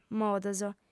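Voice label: "modos", female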